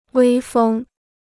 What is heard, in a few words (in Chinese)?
"威风", Chinese